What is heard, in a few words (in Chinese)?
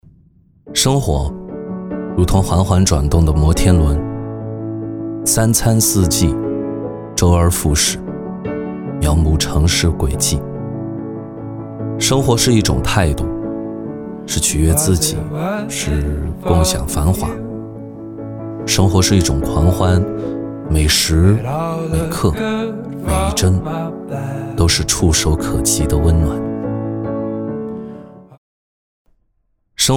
男 素人 走心 生活; 素人风格男声